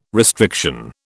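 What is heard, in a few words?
"restriction", British English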